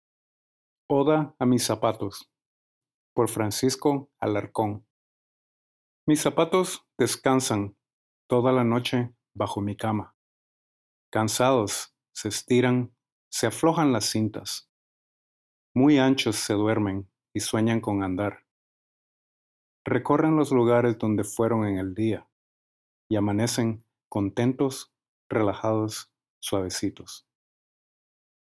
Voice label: Poem Spanish; ode to my shoes; the history of sneakers